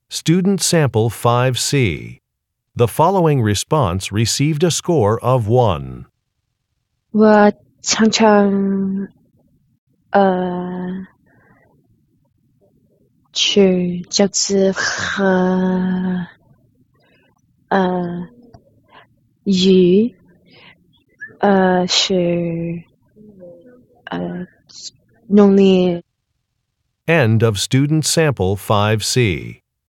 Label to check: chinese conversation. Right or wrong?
Right